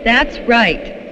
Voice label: "that's right", female; Voice